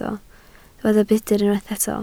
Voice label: "budr", Welsh